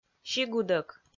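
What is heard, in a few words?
"сигудӧк", Komi, female; kpv audio dict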